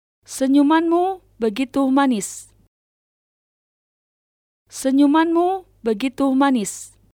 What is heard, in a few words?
Your smile is wonderful Indonesian